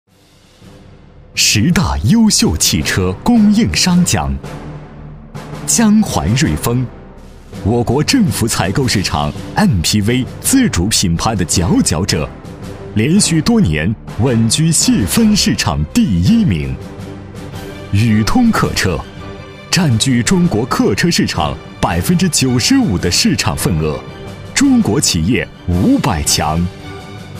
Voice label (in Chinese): 男, 颁奖音